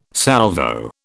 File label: "Salvo", British